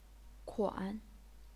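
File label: "kuan", Chinese, third tone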